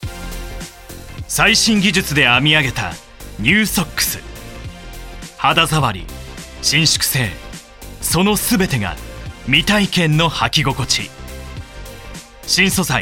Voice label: Shoes CM; ボイスサンプル